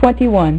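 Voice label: "twenty-one", female; MacCribbage; InterStellar MacCribbage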